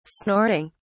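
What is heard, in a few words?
"snorting", British English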